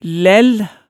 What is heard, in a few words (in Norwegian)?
"læll", hemsedalsmaalet tale